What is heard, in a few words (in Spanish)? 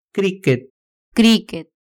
"criquet", Spanish; Vocabulario